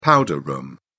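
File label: "powder room", British English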